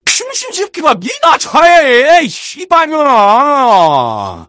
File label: scout jeers